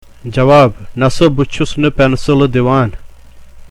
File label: Spoken Kashmiri, Reply: No, I am not giving away pencils.